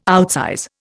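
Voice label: "outsize", Indian English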